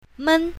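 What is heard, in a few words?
"men5", pinyin, south, female; pronouns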